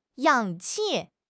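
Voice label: "氧气", Mandarin Chinese; HSK6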